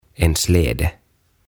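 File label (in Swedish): "En Slede", Swedish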